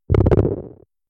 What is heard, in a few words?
low voice